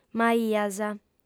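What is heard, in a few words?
"m'aias", female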